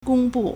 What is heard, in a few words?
"gong1 bu4", Chinese